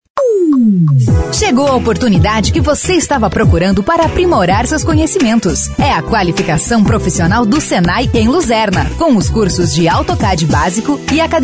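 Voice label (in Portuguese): voz mulher comercial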